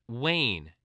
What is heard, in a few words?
"wane", spelling